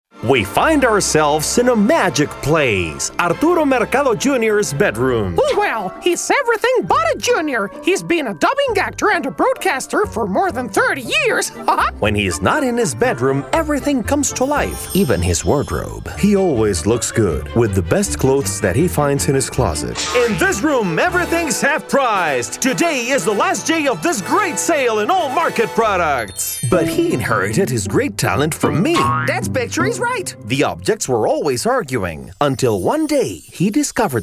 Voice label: English